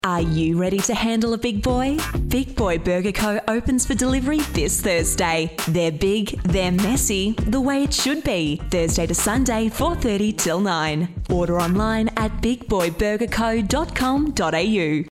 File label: english australian others